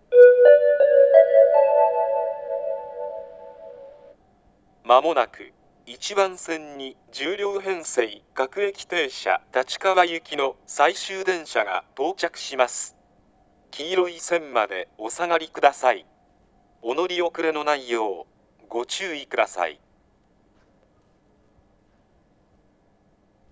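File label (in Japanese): 接近放送, 旭型放送(男声), 青梅線, 最終付帯があります。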